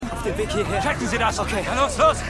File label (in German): Polizist, Stimmproben